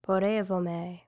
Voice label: civ female march, hellenes